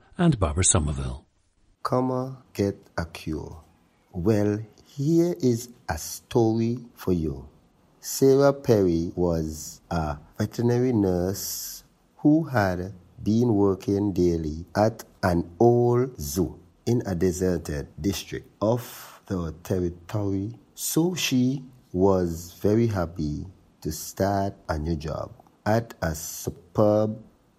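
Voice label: saint kitts and nevis, International Dialects of English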